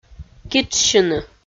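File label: "китшсьыны", Komi, female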